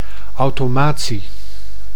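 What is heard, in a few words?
"automatie", Dutch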